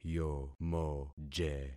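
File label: "yomoje", concatenated syllables; Affixing experiment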